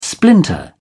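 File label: "splinter", British English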